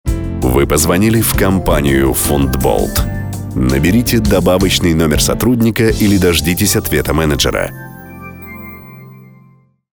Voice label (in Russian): Avtootvetchik